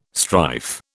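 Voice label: "strife", British English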